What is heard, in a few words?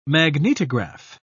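"magnetograph", British English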